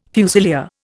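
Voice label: "fusilier", Indian English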